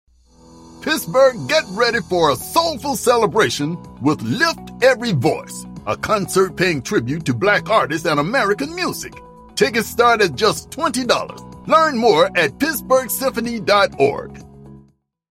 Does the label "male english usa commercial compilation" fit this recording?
No